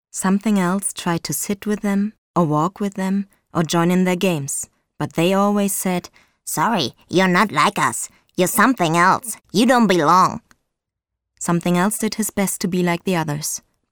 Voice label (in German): Englisch Trickstimme